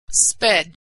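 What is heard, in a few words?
"sped", American English; verb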